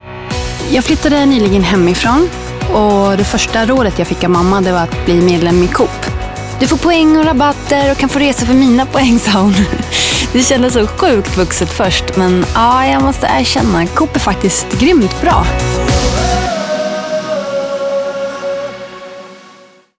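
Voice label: Swedish commercial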